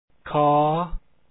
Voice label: kh2 sound, lao alphabet sounds

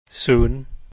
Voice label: zoon, Lao

